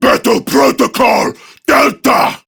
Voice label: Attack Prime Grineer Male; Tau; Battle Barks